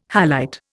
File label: "halite", Indian